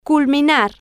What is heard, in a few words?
"culminar", Spanish